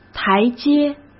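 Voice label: "台阶" Mandarin Chinese; hsk; chinese-flashcards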